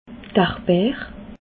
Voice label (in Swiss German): "ardbeer", Alsace